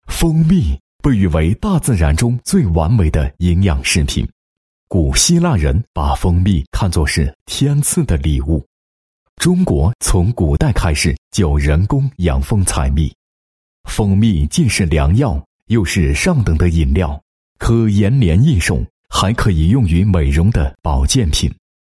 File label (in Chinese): 男 产品介绍, 配音; 新疆百里香蜂蜜宣传介绍